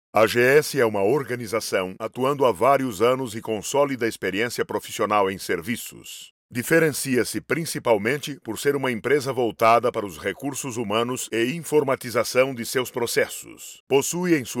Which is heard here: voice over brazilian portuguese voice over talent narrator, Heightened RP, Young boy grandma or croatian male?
voice over brazilian portuguese voice over talent narrator